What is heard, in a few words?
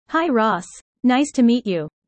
user fast; Animal friends